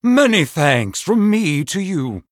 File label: Male Human Thanks